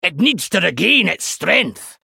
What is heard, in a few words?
male dwarf; error minion attacked